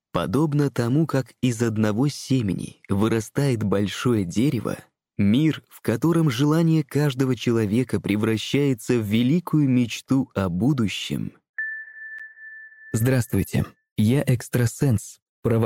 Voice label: male, Russian